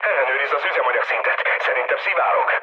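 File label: tank damaged, mood med, Hungarian; speech; War Thunder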